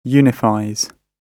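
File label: "unifies", British English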